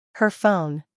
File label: "her phone", English